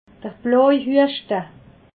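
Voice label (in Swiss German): "bloi", Alsatian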